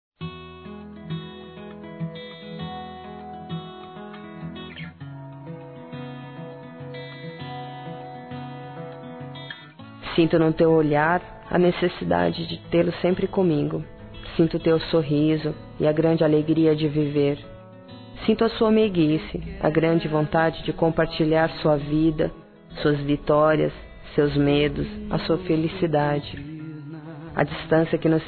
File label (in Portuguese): Distante Feminino; Dia Dos namorados; Telemensagens 2017